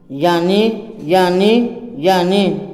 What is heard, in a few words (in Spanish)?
hoy, Tojolabal